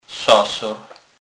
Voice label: "sasor", kurpie

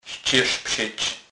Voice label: "scerzpsec", kurpie

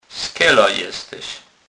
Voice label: "skela", kurpie

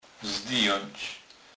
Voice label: "zdyjac", kurpie

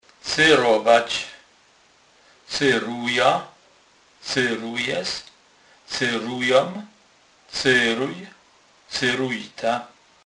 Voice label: "cerowac"; slownik

